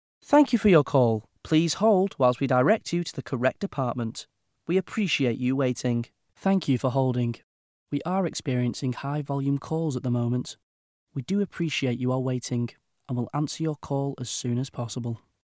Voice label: on hold